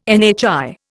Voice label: "NHI", Indian